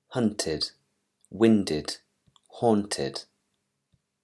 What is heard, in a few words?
english pronunciation rules